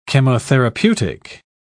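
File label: "chemotherapeutic", British English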